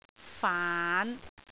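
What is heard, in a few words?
"faan5", Cantonese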